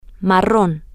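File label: brown, Spanish, female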